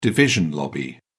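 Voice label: "division lobby", British English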